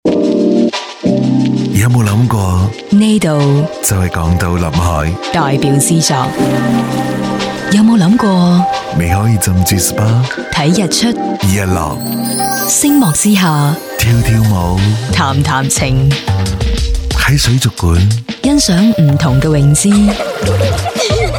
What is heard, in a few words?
Cantonese Advertising